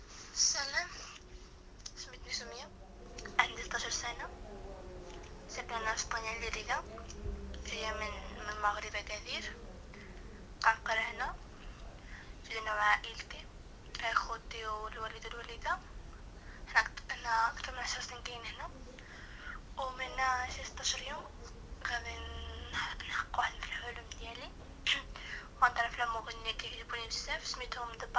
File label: Moroccan Girl Talking In Arabic